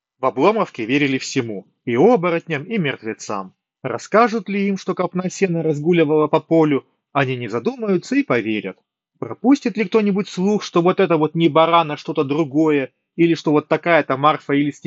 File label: Mic; moondrop golden ages2